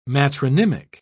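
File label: "matronymic", British English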